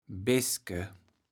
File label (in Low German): "Beeske", kaevels platt